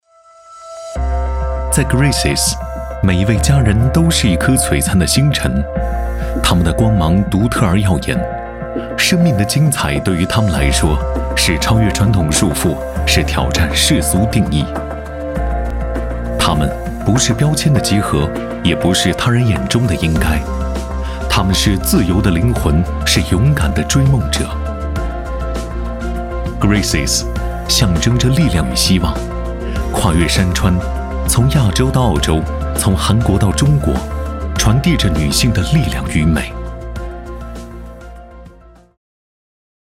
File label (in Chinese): 男 科技温暖 团队宣传片; 我们的精彩; 2024Graces团队宣传片样音